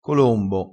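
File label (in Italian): "colombo", vocabolario dialettale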